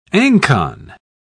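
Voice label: "ancon", English, British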